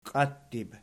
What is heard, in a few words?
"qiattib", Archi